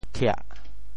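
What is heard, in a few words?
"thiah4", Teochew; 潮州; 戏歇棚拆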